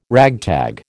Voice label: "ragtag", American